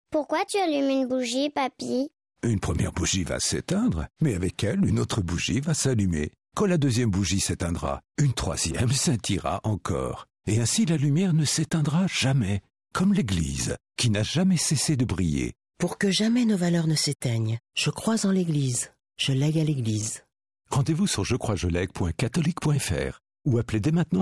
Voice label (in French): spot legs radio